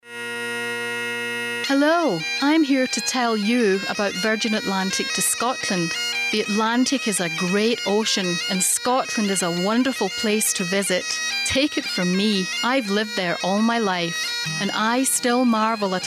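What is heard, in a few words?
Scottish, foreign accent voiceovers; foreign languages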